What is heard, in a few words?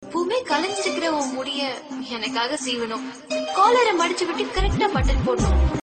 Girl Says